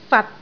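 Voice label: "fat6", Cantonese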